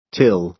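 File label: "till", American English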